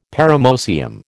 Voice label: "paramoecium", American